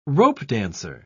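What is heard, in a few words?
"ropedancer", British English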